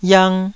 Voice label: "yiang2", taiwanese Syllables

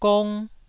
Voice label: "gong1", taiwanese syllable

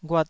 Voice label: "ghuat2", Taiwanese syllable

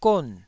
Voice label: "gon4", Taiwanese syllable

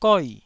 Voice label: "goi4", taiwanese

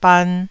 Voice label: "ban1", taiwanese syllables; 台語音節發音檔案

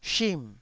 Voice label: "shim4", taiwanese Syllables

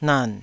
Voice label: "nan3", taiwanese

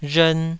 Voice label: "rhern2", taiwanese

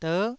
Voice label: "der9", Taiwanese syllable

